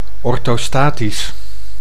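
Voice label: "orthostatisch", Dutch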